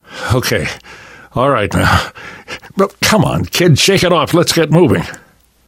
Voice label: revive friend, left4dead2 survivor voice namvet; source engine